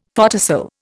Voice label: "photocell", Indian; Dictionary

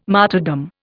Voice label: "martyrdom", Indian

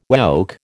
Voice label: "woke", American

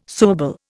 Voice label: "suable", Indian

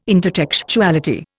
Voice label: "intertextuality", Indian

